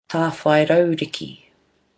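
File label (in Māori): "tawhairauriki", Māori; mtn and black beech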